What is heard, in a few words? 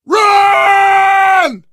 emphatic run, survivor voice